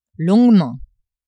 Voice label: "longuement", French; adverbs